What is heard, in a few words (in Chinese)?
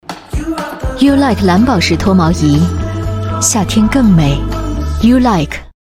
广告自然质感